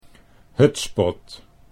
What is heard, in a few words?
hutspot, Dutch